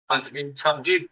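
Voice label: Voice Acting es M, Spanish, male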